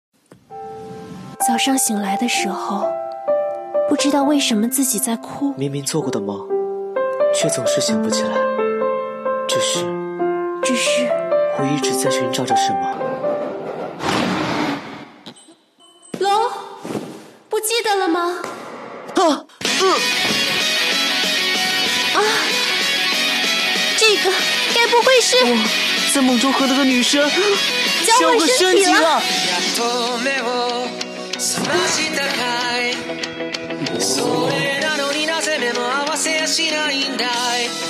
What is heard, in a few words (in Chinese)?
加混响; 你的名字